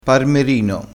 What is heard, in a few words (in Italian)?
"parmerino", crespinese